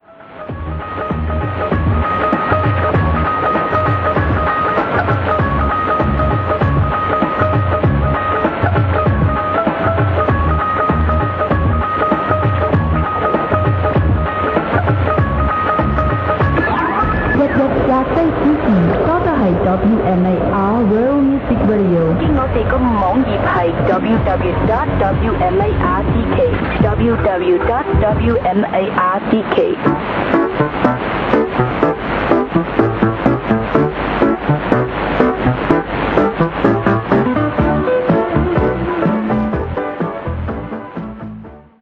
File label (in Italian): 15700 kHz inglese; World Music Radio; Degen DE 1103; filo 5m indoor; St.Ursen